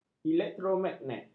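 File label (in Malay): "elektromagnet", Malay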